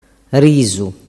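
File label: "risu", Sicilian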